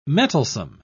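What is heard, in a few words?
"mettlesome", British English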